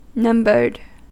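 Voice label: "numbered", US English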